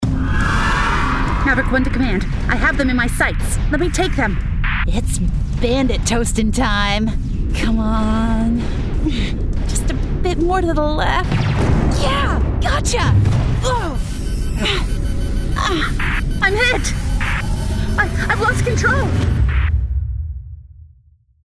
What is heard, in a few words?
GAMING YoungSpacePilot